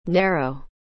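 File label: "narrow", English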